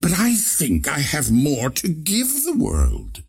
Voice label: monologue; ringmaster